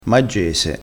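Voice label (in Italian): "maggese", dialettale crespinese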